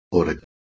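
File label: "h3reg", Proto-Indo-European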